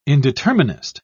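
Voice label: "indeterminist", British English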